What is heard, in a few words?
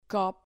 "cop", Spanish syllable